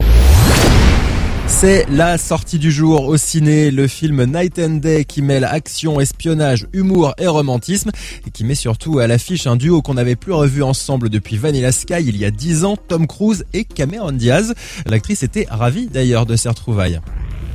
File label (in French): Voice over Interview; Cameron Diaz; NRJ